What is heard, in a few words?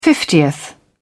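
"fiftieth", English, British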